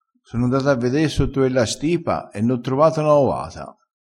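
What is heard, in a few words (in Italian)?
"covata", dialettale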